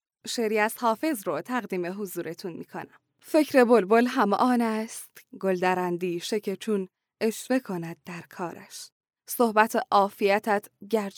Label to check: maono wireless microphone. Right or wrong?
Wrong